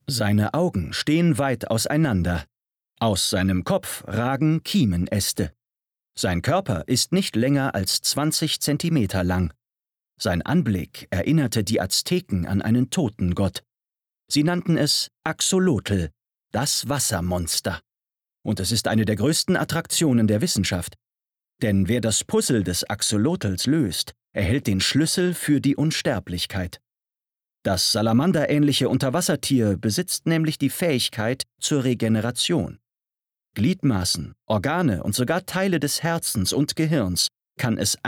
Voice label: Dokumentarischer Text

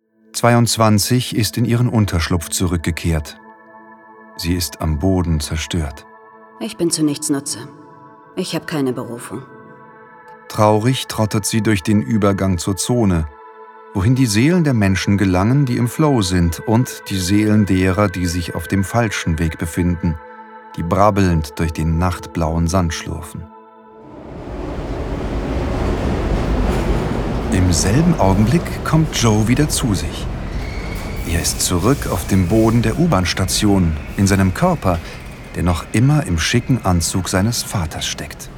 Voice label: hoerspiel; soul

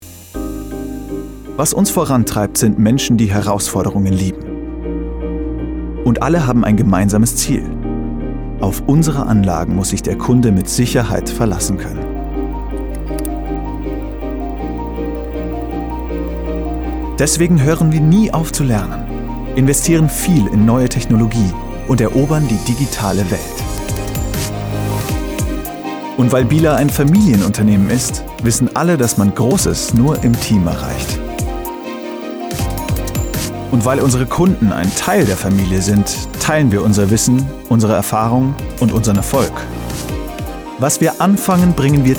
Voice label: DEU Imagefilm; Bihler

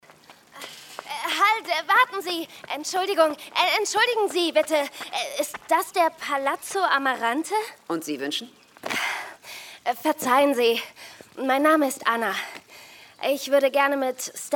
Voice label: Hörspiel; Audible Original; IMPERATOR

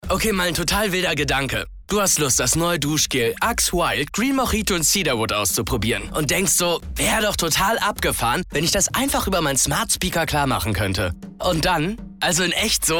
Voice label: Werbung Funk; AXE wild

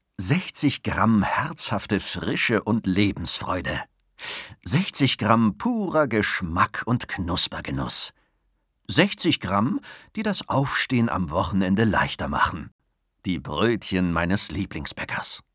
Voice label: werbung genussvoll

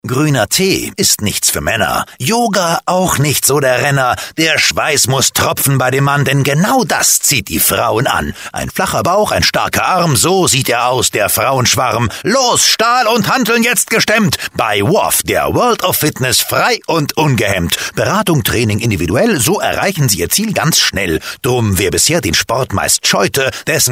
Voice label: Werbung Gedichtform laechelnd freundlich; WOF world of Fitness

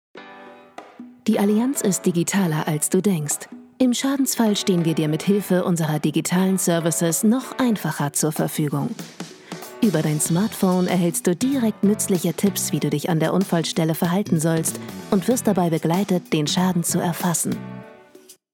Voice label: Werbung; Allianz

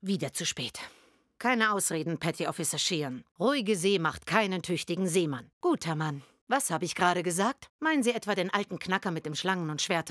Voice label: Synchron: TV-Serie, Lip-Sync (Synchron), German; NCIS

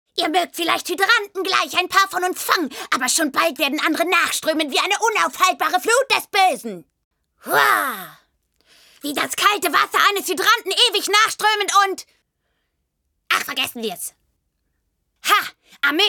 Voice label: Animation; LOFT VOICES

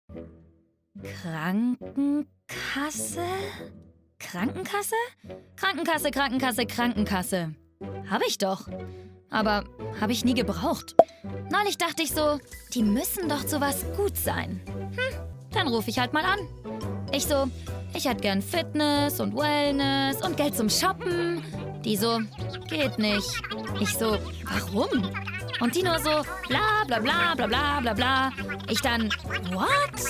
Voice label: Tarif Spot; BKK

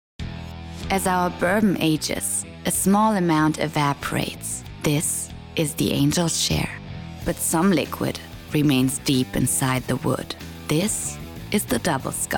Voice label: Werbung EN; Jim Beam

